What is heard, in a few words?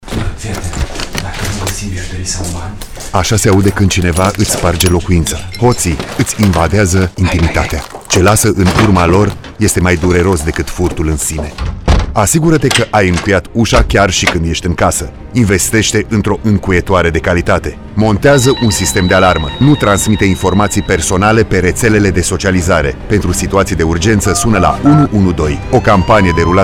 Spot radio; Feroneria